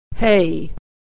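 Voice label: "hay", American English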